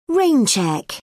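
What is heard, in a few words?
"rain check", British English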